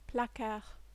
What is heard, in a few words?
"placard", French